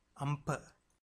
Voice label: "ampa", Tamil